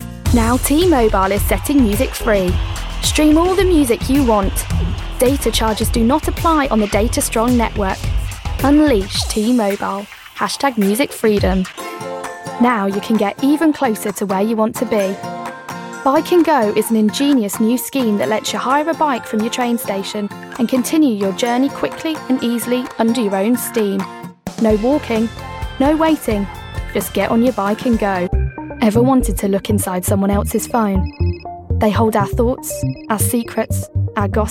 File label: Commercial